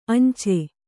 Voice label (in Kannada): "ಅಂಚೆ", kannada